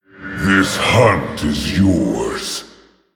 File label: Male Orc Concede; Rexxar Deathknight